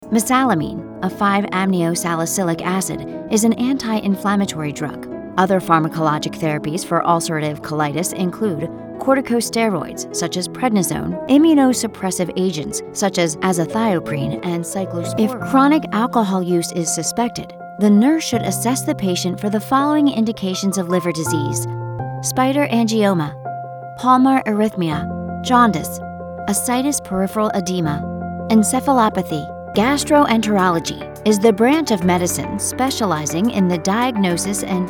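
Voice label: American English medical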